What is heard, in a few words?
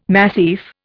"massif", Indian